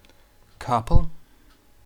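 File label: "capall", Irish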